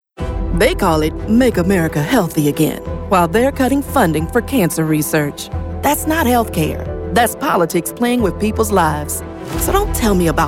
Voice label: women political